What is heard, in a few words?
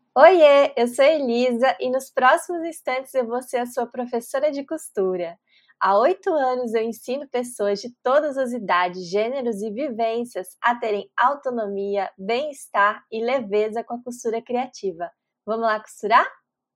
voice recorder